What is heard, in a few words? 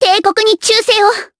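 voice Victory, voices heroes, Japanese; Rodina; kingsraid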